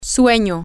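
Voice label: sleepy, Spanish, female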